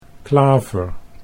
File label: "klaver", Dutch